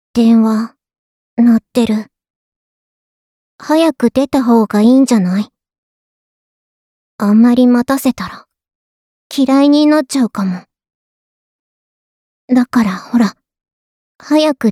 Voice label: 着信ボイス; 春日野穹

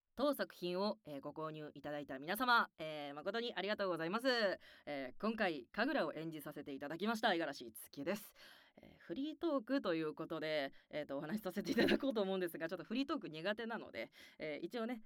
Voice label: フリートーク

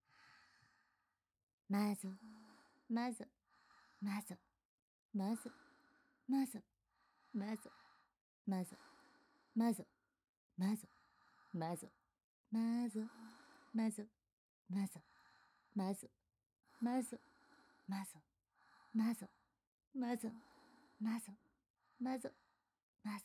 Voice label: マゾ 連呼 x 吐息; 低音JKにたっぷりシコシコ マゾマゾ オナニーサポート

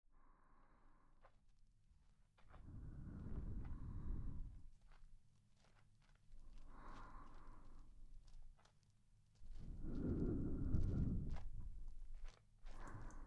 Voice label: お風呂でちんこマッサージ, ASMR; ゾクッゾクッ!健全!ちんこマッサージ店; フォーリーサウンド

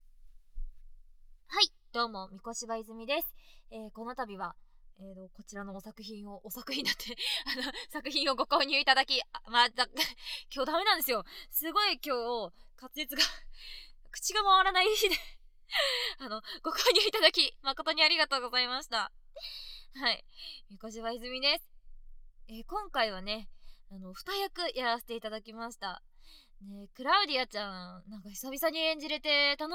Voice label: フリートーク, ASMR; 女騎士団長の屈辱III【紅玉の洞窟編】

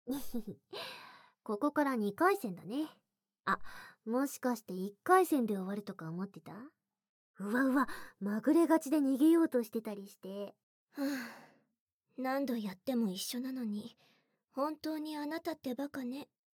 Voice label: ピストンマシーン耐久レズバトル, ASMR

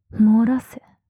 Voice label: 漏らせ・強く・極近・右, ASMR

